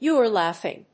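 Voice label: "Youre laughing!", English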